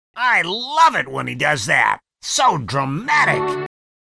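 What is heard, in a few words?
character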